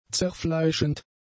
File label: "zerfleischend", German; adjectives